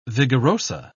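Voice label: "vigoroso", British English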